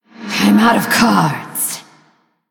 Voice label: Female Blood Elf No Cards; Valeera Deathknight

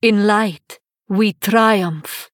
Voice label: Female Draenei TRIGGER HOLY LIGHT

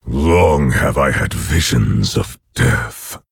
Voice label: Orc Emote HAPPY HALLOWEEN

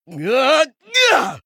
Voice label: Male Human Attack

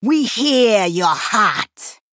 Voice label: Female Troll Play

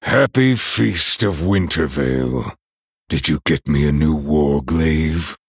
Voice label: Male Night Elf HAPPY HOLIDAYS

